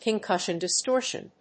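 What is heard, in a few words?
"pin cushion distortion", English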